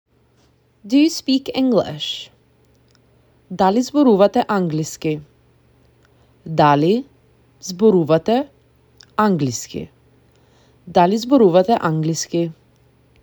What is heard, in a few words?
Do You Speak English, macedonian; the talking macedonian phrasebook